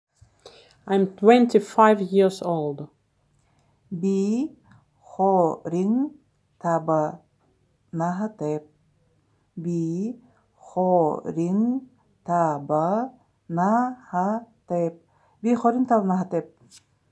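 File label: I am 25 years old, Buryat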